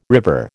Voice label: "river", American